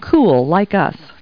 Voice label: people, kid